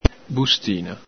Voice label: "bustina", Veneto